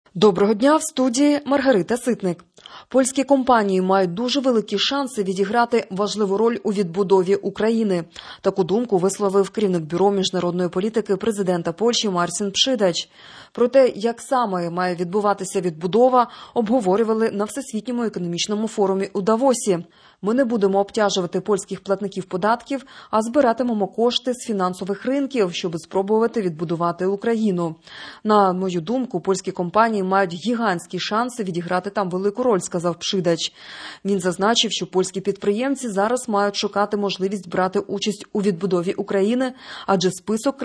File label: Ukrainian Morning News; Польські компанії мають великі шанси відіграти важливу роль у відбудові України